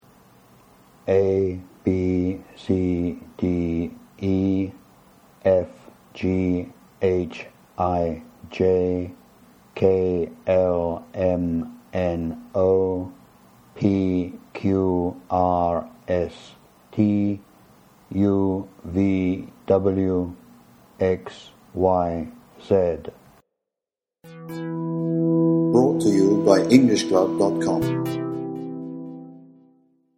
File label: alphabet pronunciation british